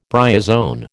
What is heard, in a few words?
"bryozoan", American